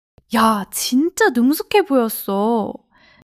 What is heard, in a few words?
excitement